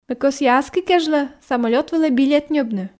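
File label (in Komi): ме кӧсъя аски кежлӧ самолёт вылӧ билет ньӧбны, Komi, female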